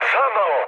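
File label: Serbian bomb failure mood med, speech; War Thunder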